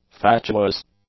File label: "fatuous", english; adjectives